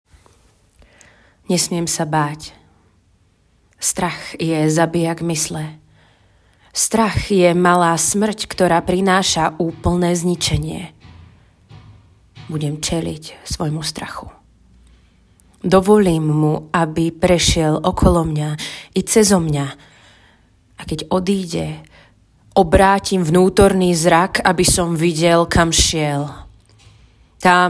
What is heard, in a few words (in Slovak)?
ukazka, zensky hlas voice over